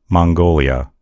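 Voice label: "mongolia", English; gcompris; geography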